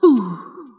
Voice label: "ooh" female